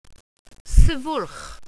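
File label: "syfwlch", welsh pronunciations; Wales/welsh names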